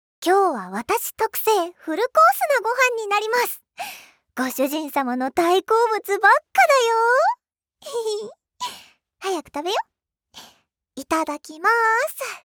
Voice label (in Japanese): シチュエーションボイス